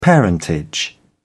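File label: "parentage", British English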